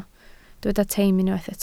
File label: "teim", Welsh